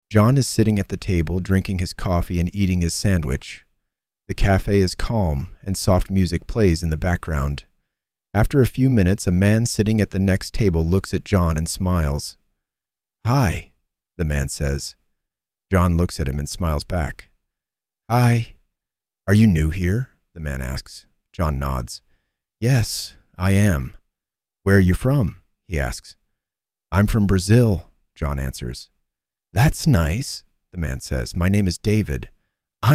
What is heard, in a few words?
Simple Conversation; Ingles para Iniciantes